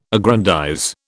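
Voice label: "aggrandize", British English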